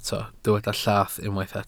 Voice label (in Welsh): "llath", Welsh